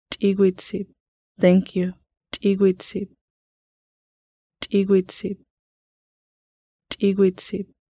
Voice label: thank you, Lushootseed